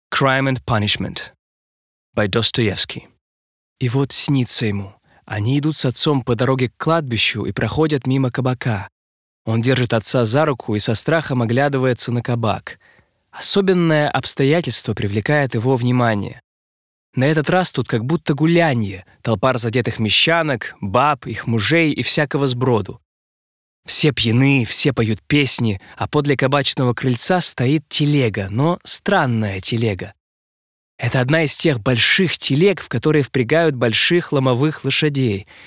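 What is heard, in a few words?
Russian audiobook; Crime and Punishment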